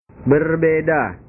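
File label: "berbeda", Indonesian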